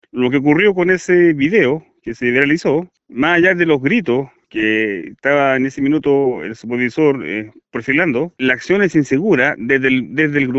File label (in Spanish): gritos supervisor coreano; puente chacao